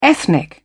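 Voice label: "ethnic", British English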